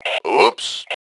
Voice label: "Ooops", Male; T2 Male 04

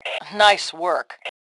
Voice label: Compliment Nice Move, Female; T2 Female 02

